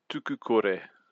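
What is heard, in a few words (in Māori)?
"tukukore", Māori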